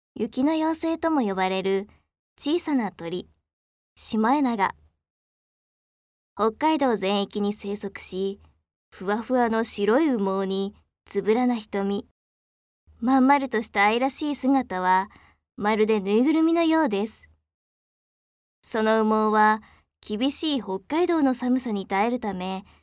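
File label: narration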